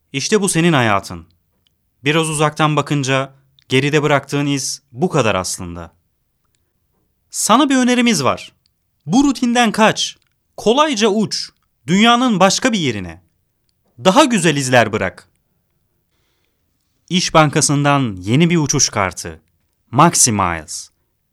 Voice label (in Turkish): REKLAM